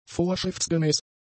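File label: "vorschriftsgemäß", German; adjectives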